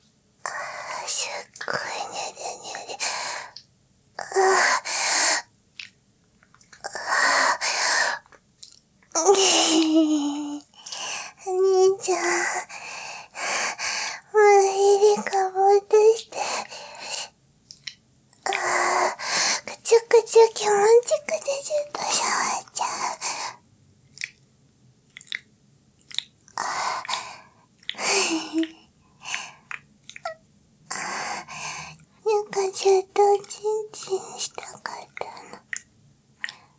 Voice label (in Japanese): ピロートーク; 限界オナ禁; 4ヶ月ぶりにおちんちん挿れたらどうなっちゃうの